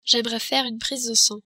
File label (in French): prise de sang, french